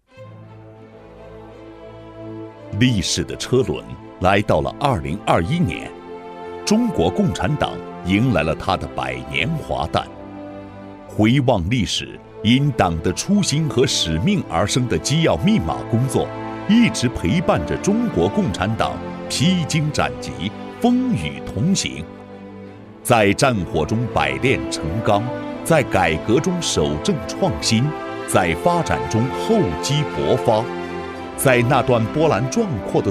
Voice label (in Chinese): 男,独白; 历史的车轮来到了2021年